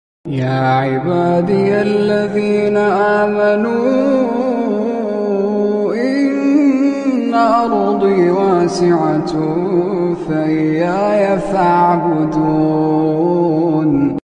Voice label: Arabic Islamic; Ya Ibadi Allazina Amanu